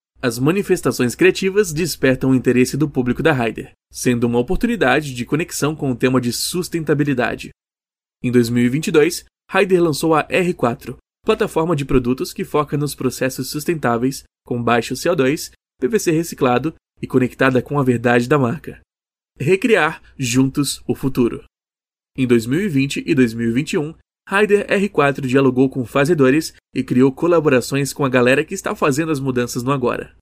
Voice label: 葡萄牙男声

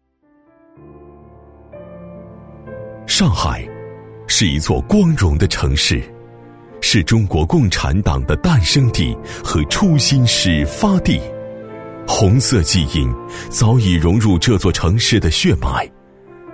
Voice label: 男 党建 国防; 年轻浑厚 大气浑厚

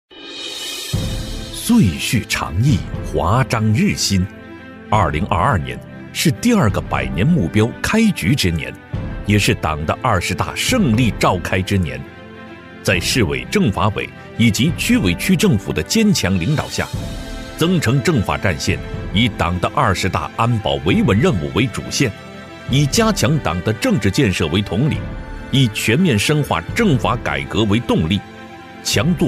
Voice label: 男 党建专题 力度稳重; 党的二十大 踔厉奋进; 男71-稳重底蕴

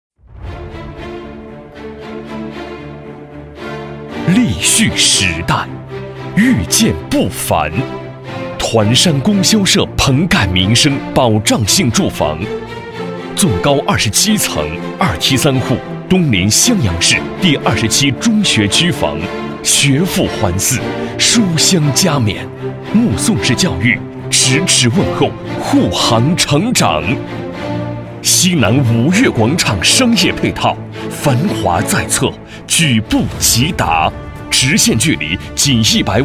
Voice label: 男 专题配音 房地产宣传 大气; 男25-年轻激情 大气浑厚

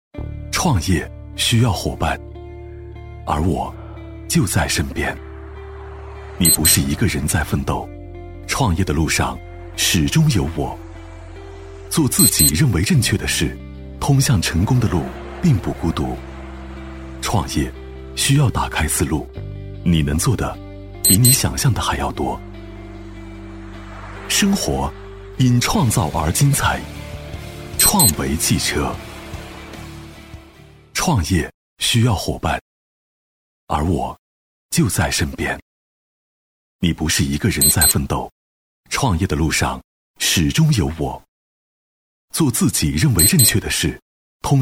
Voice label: 男 汽车广告 高端质感; 创维汽车; 磁性质感

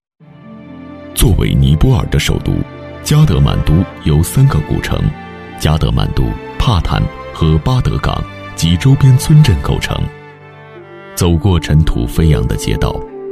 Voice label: 男-旁白解说-平和讲解, 磁性大气 大气浑厚; 走进尼泊尔

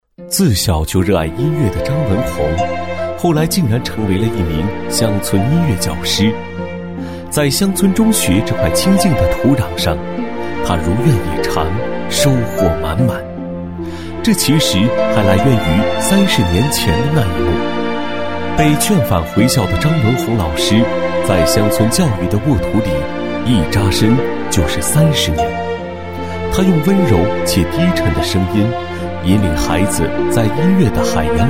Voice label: 男 自然讲述, 年轻气质 素人自然

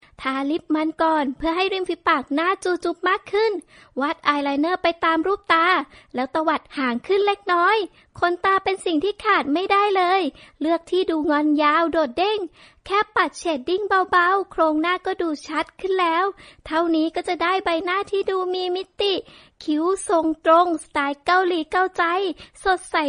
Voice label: 泰语女声

